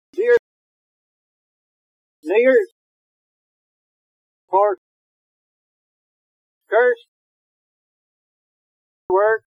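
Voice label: Chorley town, rhotic: dear near far cursed were working worthy share the'acorns after brother father hunger longer together; birth 1881; rhoticity; accents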